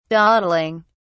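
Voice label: "dawdling", English, British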